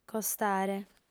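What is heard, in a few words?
"costare", female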